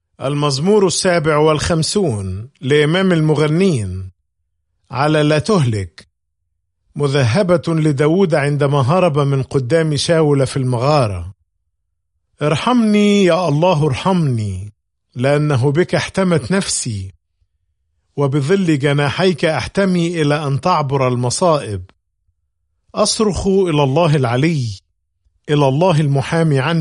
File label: Psalm, arabic bible; psalms